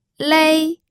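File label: "lei", first tone, pinyin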